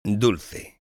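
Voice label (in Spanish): "dulce", Spanish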